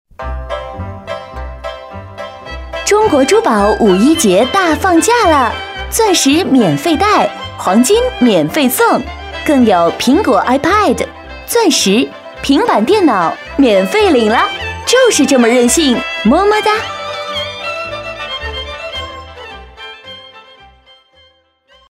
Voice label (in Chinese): 女 促销 珠宝节 加音乐, 国语女声配音